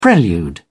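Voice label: "prelude", English, British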